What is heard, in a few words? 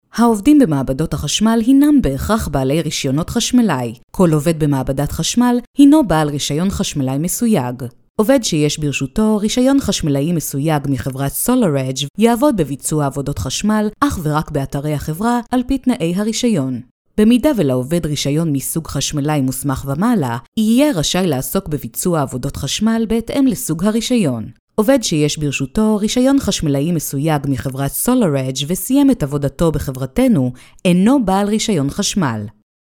hebrew e-learning